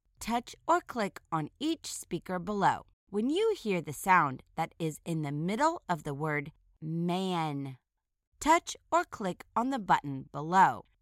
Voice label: man medial phoneme isolation